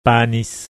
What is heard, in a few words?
"panis", Latin